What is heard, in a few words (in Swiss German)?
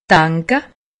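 "denke", Alsatian; medecine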